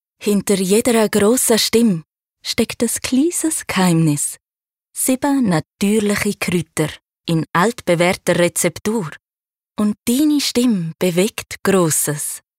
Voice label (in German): Werbung CH GR, hoch